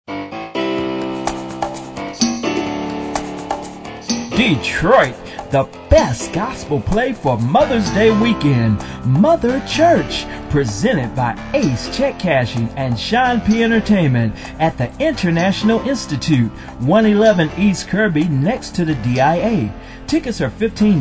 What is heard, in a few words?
Commercial; MotherChurch